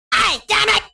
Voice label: "damn it", Furby